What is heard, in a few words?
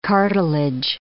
"cartilage", English